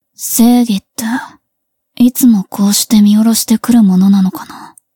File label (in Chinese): 战斗-围观者叹